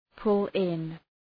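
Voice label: "pull in", English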